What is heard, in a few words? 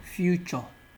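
"future", Nigerian Pidgin, male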